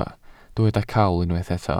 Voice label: "cawl", Welsh